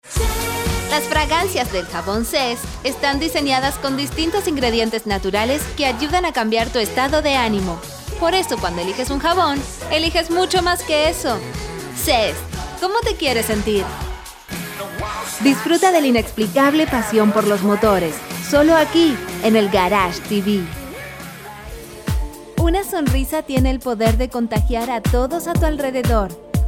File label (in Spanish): Neutro; Locus